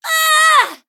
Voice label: hit, en; Cookie Run